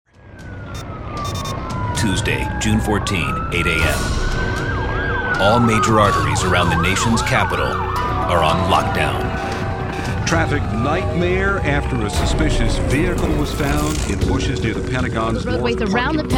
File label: Narration